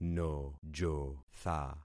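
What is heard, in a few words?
"nojotha", concatenated syllables; Affixing experiment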